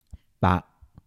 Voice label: "百", 台城, 男

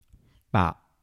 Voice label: "白", 台城, 男

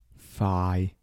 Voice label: "快", 台城, 男

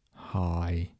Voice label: "鞋", 台城, 男; 台山话

